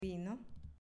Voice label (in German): "Vino" Spanisch